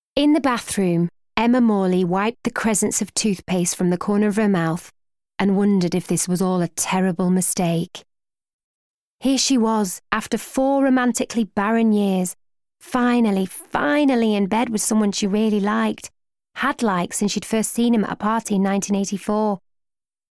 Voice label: Audiobook Yorkshire

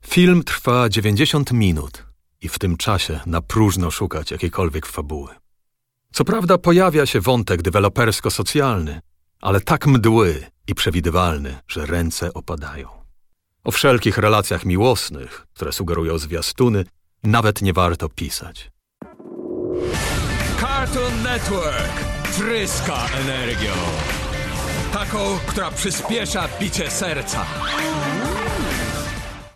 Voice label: Polish; Eastern European

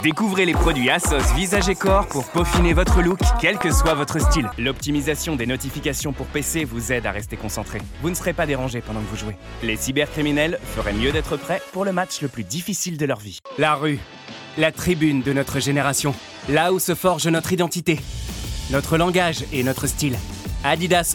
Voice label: French

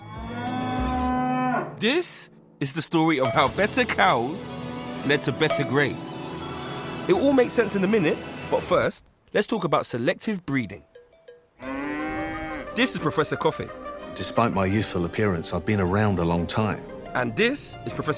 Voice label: Documentary

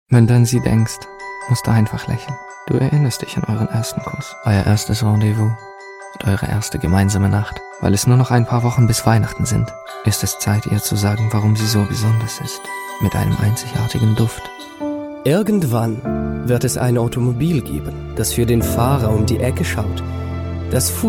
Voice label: German

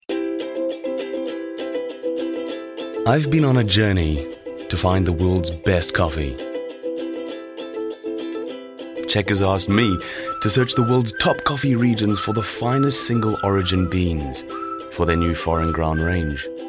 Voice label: Commercial

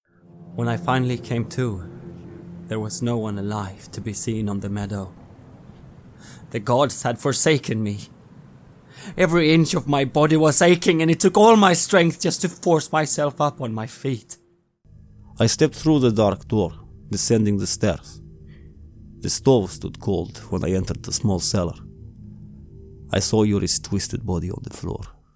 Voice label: Character

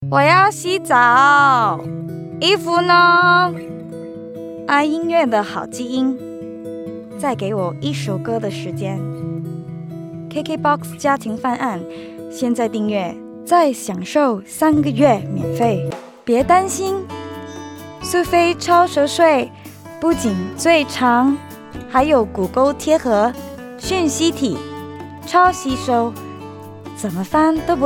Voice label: Mandarin

